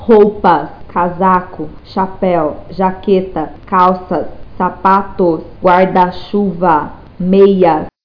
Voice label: Portuguese clothes

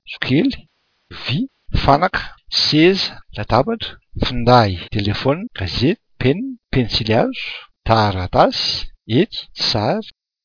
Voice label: malagasy objects

